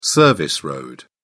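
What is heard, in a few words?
"service road", British English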